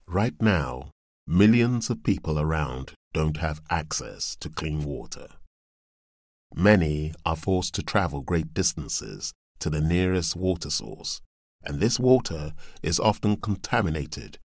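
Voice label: African English, Charity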